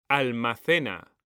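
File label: "almacena", Spanish, male; mekanta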